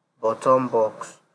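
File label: "bottom box", Nigerian Pidgin, male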